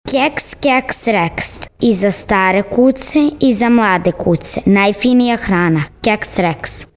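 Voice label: Serbian 10 year old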